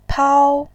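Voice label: "pao", Mandarin, tone 1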